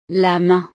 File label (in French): "la main", frances; partes del cuerpo